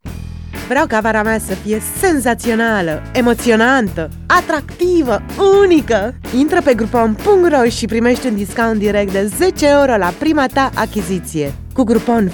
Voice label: romanian narration